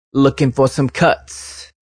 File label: Lookin 4 Cuts, Radio, Male